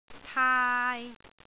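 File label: "taai3", Cantonese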